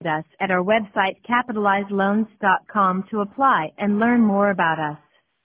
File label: robocall